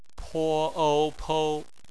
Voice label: "pou", Chinese phonetics